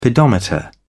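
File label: "pedometer", English, British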